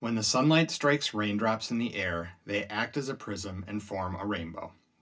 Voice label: speech, 170° (max. possible shift); audio technology group